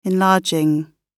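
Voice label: "enlarging", British English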